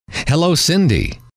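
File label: "hello", male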